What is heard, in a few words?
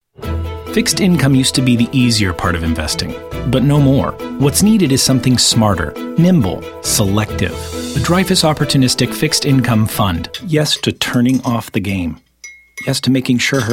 commercial